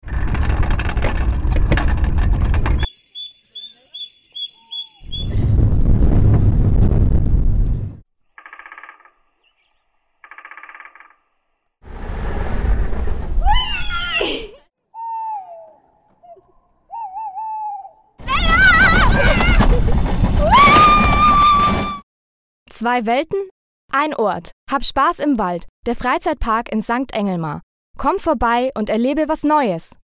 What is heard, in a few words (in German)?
Werbung; Freizeitspassmacher St Englmar